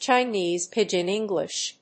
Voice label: "Chinese pidgin English", English